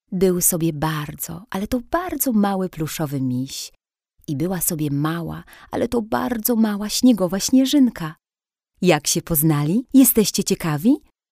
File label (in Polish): F AUDIOBOOK